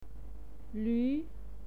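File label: "lü" second tone, pinyin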